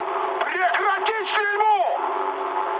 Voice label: player friendly fire, mood high, speech ru

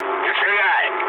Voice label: player friendly fire, mood low, speech ru; War Thunder

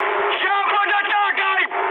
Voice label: aircraft damaged, mood high, speech ru; War Thunder

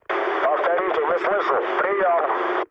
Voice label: chat, speech ru; War Thunder

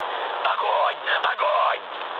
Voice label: attack position, mood low, speech ru; War Thunder

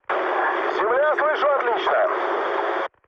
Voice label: chat, speech ru; War Thunder

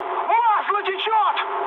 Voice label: damaged oil, mood high, speech ru; War Thunder

